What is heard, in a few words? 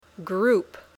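"group", English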